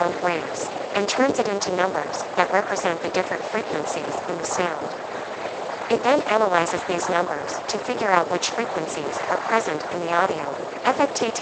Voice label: high voice noise; speex